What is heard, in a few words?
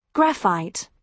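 "graphite", British English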